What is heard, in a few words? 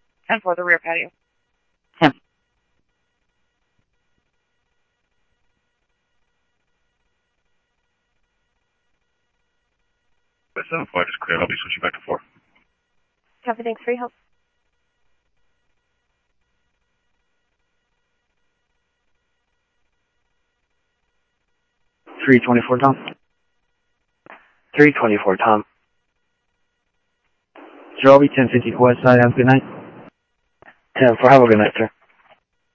PD Police, scanner audio